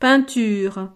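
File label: "peinture", French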